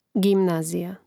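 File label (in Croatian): "gimnazija", hrvatski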